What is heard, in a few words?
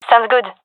agree, gendarmerie fem; vo; agents